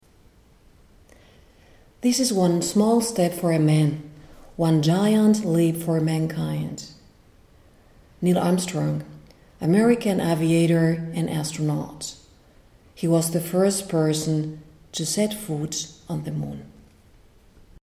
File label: english